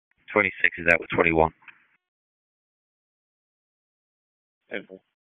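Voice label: Sheriff's Office Dispatch; wake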